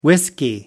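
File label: "whiskey", British English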